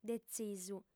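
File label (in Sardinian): "detzisu", female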